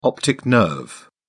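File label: "optic nerve", British English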